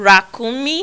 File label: "rakunmi", Yoruba; CONSONANTS; rakunmi-rara-ara